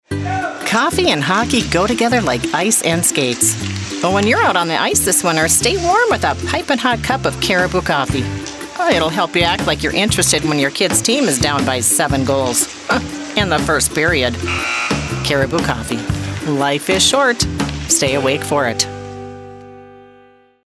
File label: Minnesota Accent